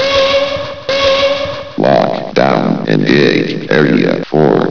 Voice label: vox, lockdown online; svencoop; bts rc